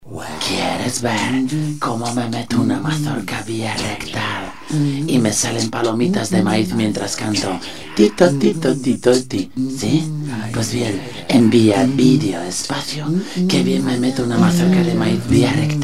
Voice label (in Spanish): Publicidad; Mazorca